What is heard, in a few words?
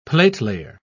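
"platelayer", British English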